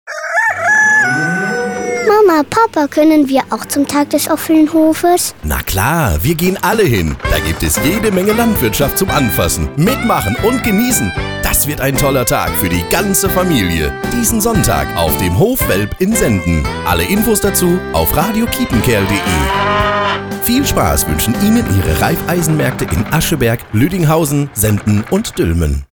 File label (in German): Funkspot Veranstaltung